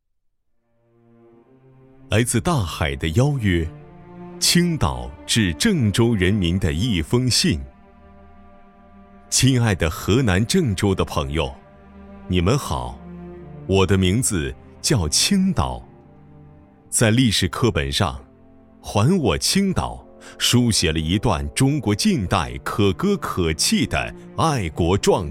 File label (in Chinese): 男,朗诵; 青岛的一封信